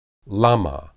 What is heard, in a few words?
lamah, hebrew